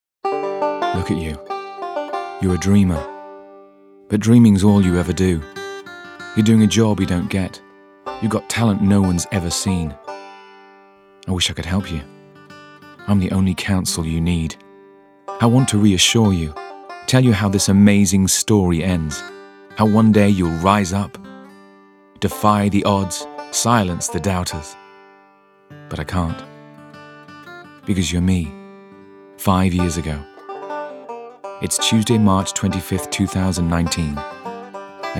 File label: languages men english